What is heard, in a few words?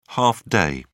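"half day", British English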